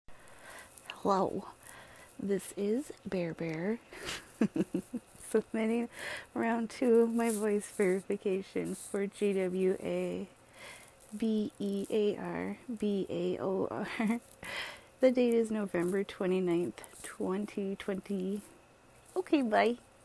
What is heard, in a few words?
F, Voice verification